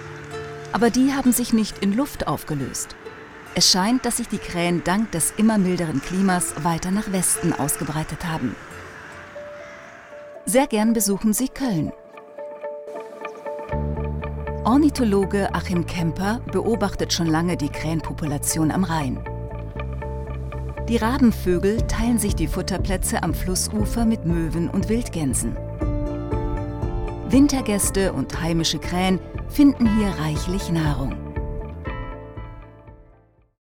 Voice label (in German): Doku; Schwarze Schwaerme; ARTE; LOFT VOICES